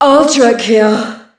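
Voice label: ultra kill, female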